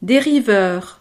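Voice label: "deriveur", French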